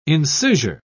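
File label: "incisure", British English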